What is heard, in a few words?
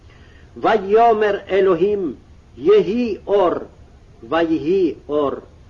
Genesis Reading Practice, Aramaic